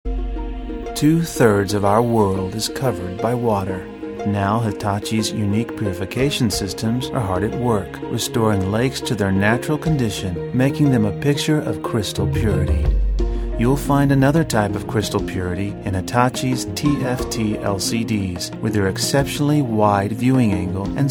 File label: Narration